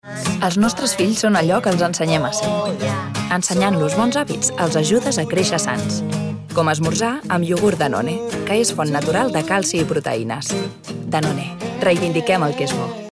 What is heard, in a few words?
female catalan food comercial; ideasonora